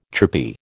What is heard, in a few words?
"chirpy", American